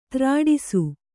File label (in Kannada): "ತ್ರಾಡಿಸು", Kannada